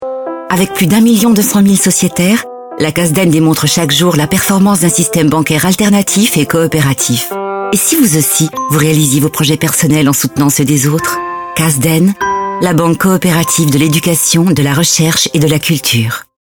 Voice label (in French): radio; casden